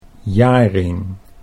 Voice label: "Jaring", Dutch